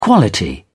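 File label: "quality", English, British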